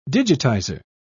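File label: "digitizer", en, British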